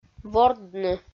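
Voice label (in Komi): "вордны", Komi, female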